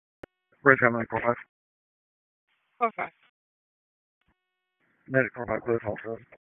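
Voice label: EMS Dispatch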